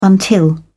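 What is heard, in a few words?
"until", British English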